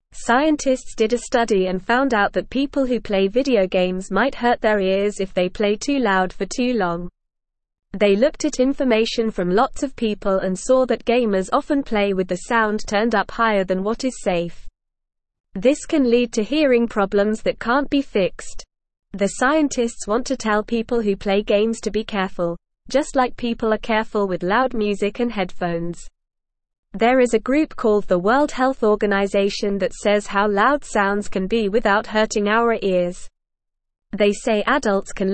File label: English Lower Intermediate NORMAL Reading; Video Games Can Hurt Your Ears; English Newsroom